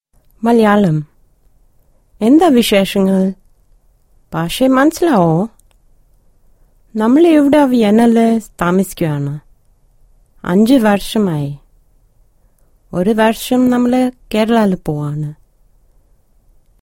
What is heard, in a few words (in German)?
Suedindien W